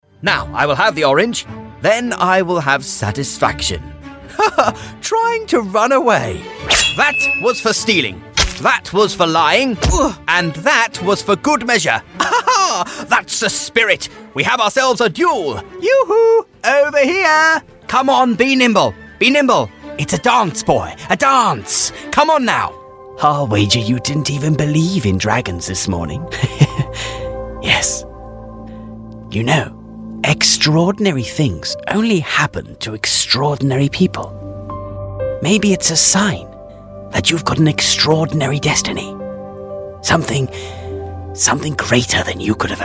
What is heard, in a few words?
fantasy childrens audio drama